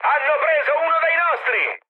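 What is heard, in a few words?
Italian aircraft destroyed mood high, speech; War Thunder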